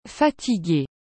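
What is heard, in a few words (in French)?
"fatiguer", French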